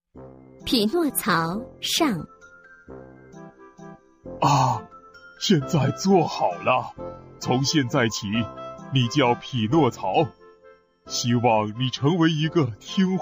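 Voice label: chinese mandarin female commercial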